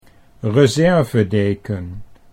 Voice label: "reservedeken", Dutch